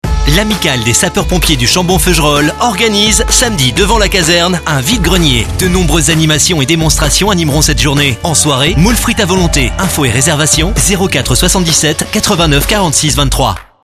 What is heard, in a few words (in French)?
radio pub spot